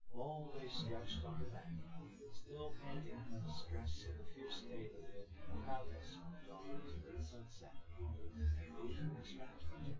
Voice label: male synth conv 1m; SASSEC07